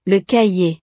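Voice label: "le cahier", French; utiles escolares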